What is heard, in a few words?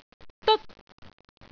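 "deot1", Cantonese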